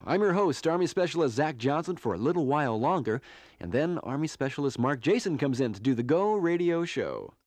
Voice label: Radio Spot: U.s. Armed Forces Institute, Course Advisory And Bumpber Music; Mono